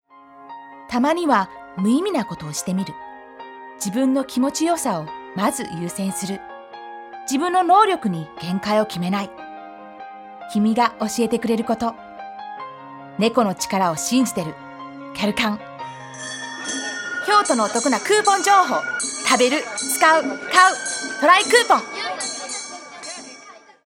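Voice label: Japanese, Commercial; GoLocalise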